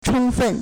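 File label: "chōngfēn", Chinese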